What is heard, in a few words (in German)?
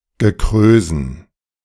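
"Gekrösen", German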